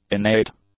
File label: "innate", English; adjectives